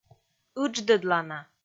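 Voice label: "ыдждӧдлана", Komi, female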